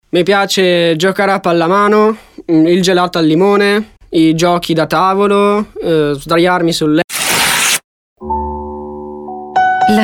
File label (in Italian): Spot radio; Fondazione Ricerca Fibrosi Cistica